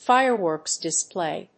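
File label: "fireworks display", English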